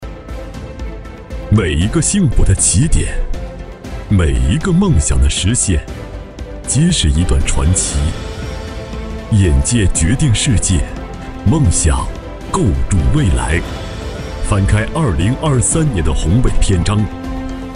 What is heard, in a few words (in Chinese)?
地产, 深沉内敛真人商业配音, 中年（36-54）; 云顶豪庭